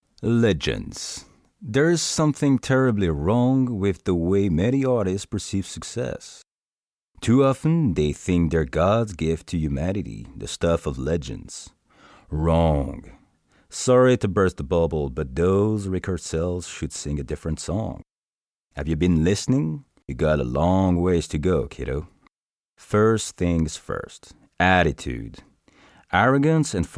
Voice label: audiobook